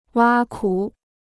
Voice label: "挖苦", Chinese

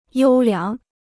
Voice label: "优良", Chinese

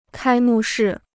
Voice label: "开幕式", Chinese

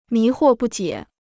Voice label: "迷惑不解", Chinese

